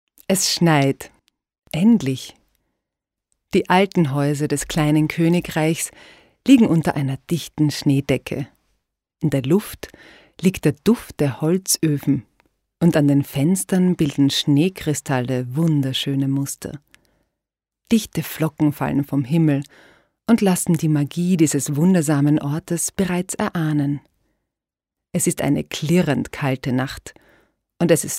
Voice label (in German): Hörbuch Märchen